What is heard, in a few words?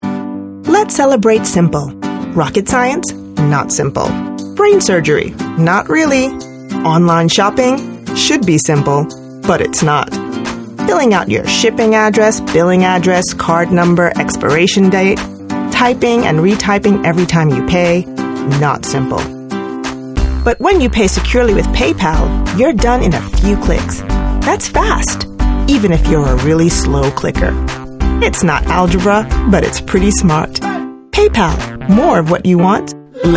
American commercial